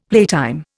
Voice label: "playtime", Indian; Dictionary